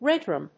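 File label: "redrum", English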